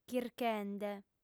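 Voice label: "chirchende", Sardinian, female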